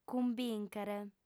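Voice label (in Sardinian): "cumbìnchere", female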